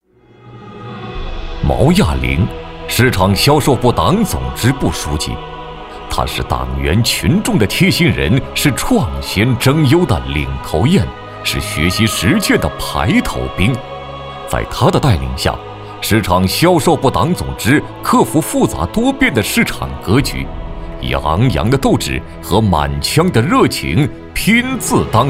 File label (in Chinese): 男100号-颁奖-党员颁奖 大气 浑厚, 国语男声配音